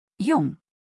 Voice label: "Jung", deutsch

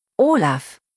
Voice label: "Olaf", deutsch, jungennamen

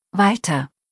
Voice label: "Walter", deutsch, jungennamen

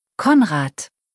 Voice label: "Konrad", deutsch, jungennamen

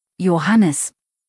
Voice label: "Johannes", deutsch, jungennamen

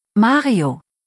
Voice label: "Mario", deutsch, jungennamen

